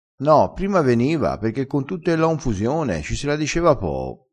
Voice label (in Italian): "dissela poo", crespinese, vocabolario dialettale